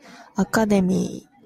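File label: "academy", Japanese female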